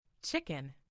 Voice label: "chicken", en; FoodChain